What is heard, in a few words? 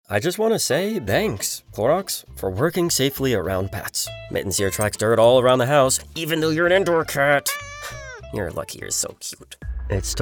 Commercial